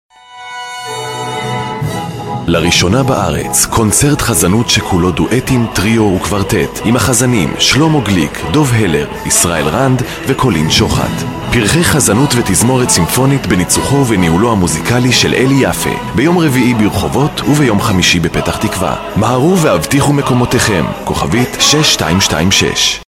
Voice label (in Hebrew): קריינות קונצרט טריו